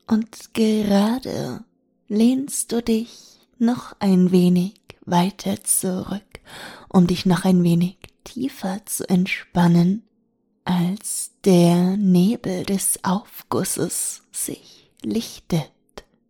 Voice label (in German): erotische Hypnose; Ohrgasmus; Hypnotische Weltreise nach Lappland